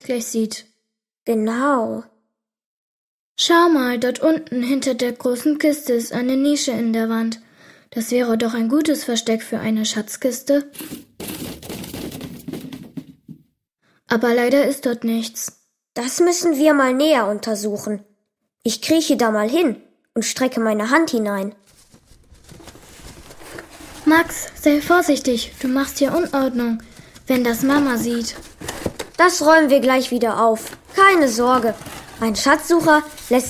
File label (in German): Hoerspiel